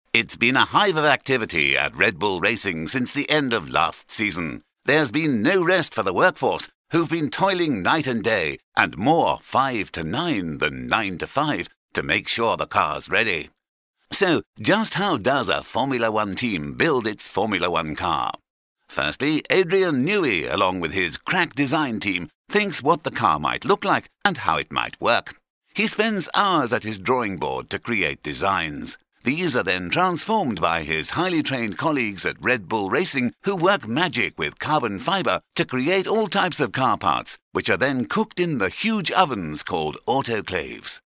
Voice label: vintage commentary